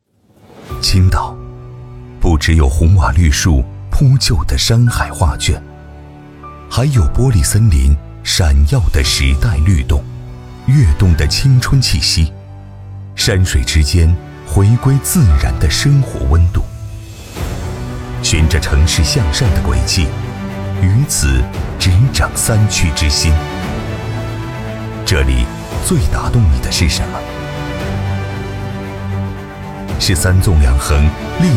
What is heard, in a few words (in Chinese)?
男 宣传片 地产; 青特•璟誉